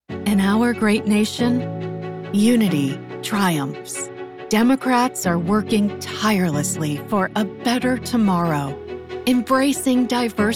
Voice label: Democrat Image Ad VO